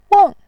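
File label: "weng", Mandarin Chinese, tone 4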